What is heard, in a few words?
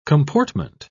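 "comportment", English, British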